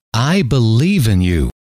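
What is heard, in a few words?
i believe in you, male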